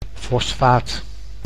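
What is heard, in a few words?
"fosfaat", Dutch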